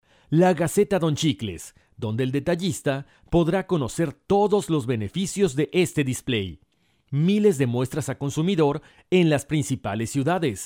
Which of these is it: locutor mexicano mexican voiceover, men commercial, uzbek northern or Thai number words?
locutor mexicano mexican voiceover